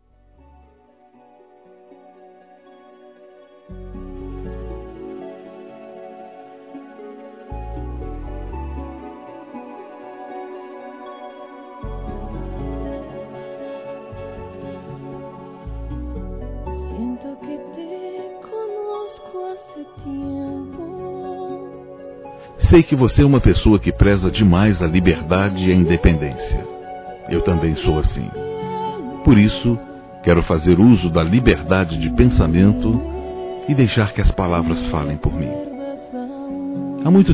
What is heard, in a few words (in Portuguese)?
Conquista Romantica Suave Masculino; Telemensagens; Conquistas Cantadas paqueras; Telemensagens 2017